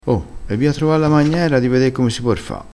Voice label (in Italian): "magnera", crespinese